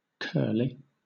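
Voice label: "curly", British English; pronunciations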